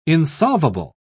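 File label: "insolvable", British English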